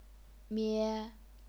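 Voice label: "mie", first tone, chinese pinyin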